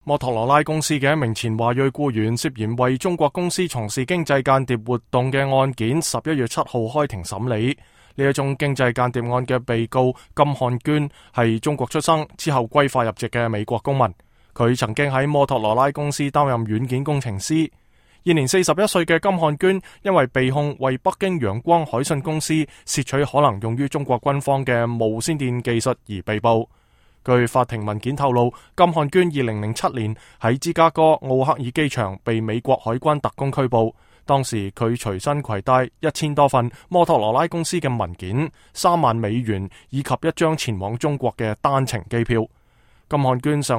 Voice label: cantonese